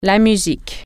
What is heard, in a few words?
"music", French